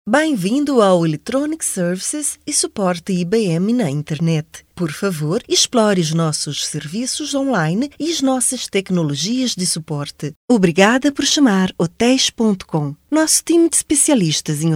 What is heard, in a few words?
European Portuguese; foreign languages